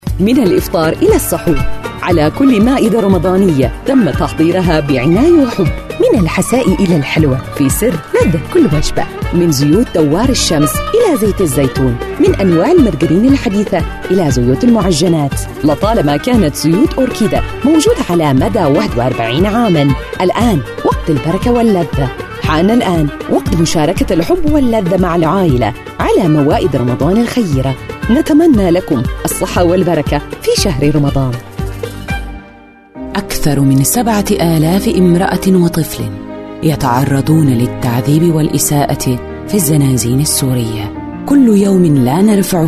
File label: arabic voice over female commercial